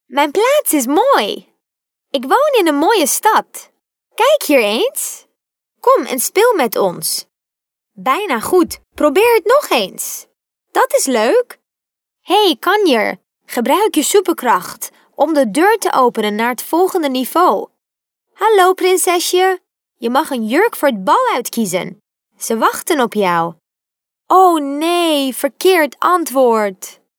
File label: NL fem children, test audio